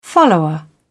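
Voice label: "follower", British English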